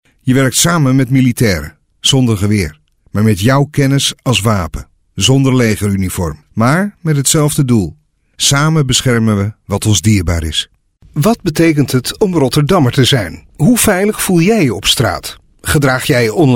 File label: warm